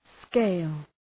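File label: "scale" English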